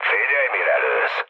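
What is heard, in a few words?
Hungarian player friendly fire mood low, speech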